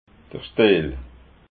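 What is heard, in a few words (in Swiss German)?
"stiel", Alsatian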